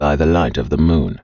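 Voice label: Elf Taunt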